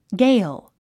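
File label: "gale", 英単語 発音; 発音 ɡéil ゲイル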